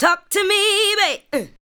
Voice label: ADLIBS 90; Ueberschall; Jam Box